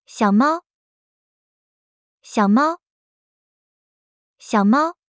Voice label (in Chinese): "小貓", Mandarin; Traditional Chinese